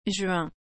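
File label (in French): "juin", French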